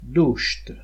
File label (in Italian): "dùshtê", dizionario materano; dito